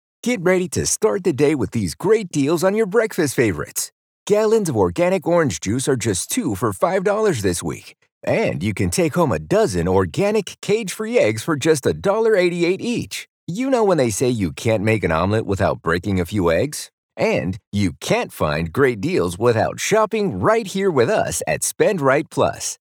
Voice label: Casual Conversational